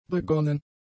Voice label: "begonnen", German; adjectives